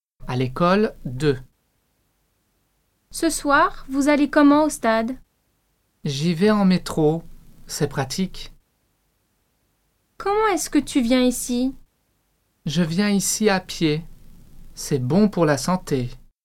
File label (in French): Dialogue a l'ecole